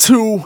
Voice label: phrase male rap; Vengeance Samples